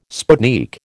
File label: "sputnik", American